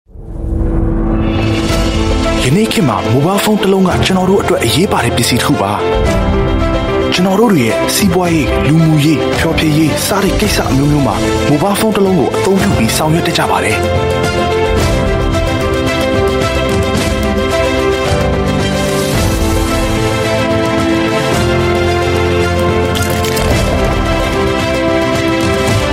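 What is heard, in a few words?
m Burmese Marketing, male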